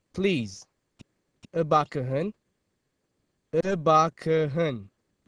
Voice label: Amharic Please